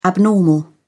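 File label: "abnormal", British English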